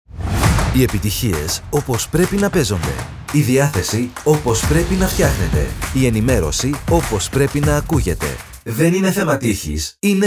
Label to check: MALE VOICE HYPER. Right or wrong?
Right